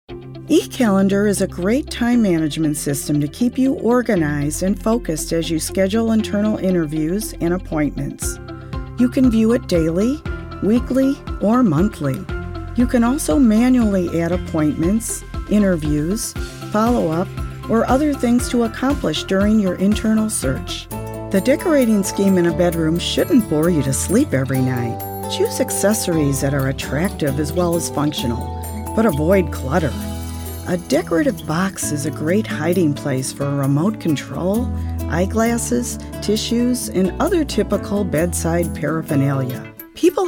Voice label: Narration